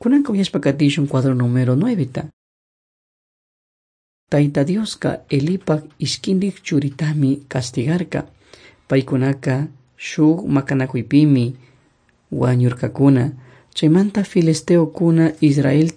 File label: servants of god quichua chimborazo